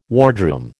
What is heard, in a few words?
"wardroom", American